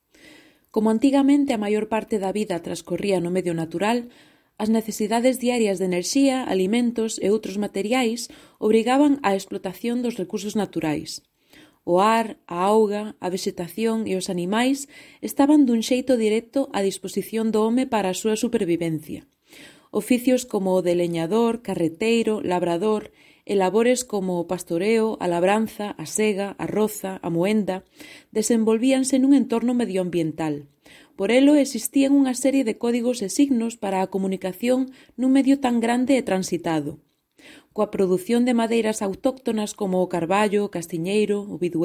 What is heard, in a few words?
female, Spanish Castilian and Galician, Galician audiobook; Devine Voices